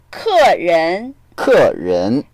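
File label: "ke4ren2", Mandarin Chinese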